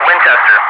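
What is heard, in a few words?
"winchester", English speech, Wingman; DCS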